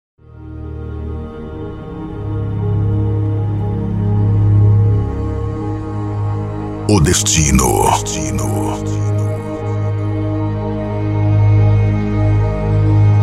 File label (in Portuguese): voz épica para vinheta de abertura de djs shows bandas; cantores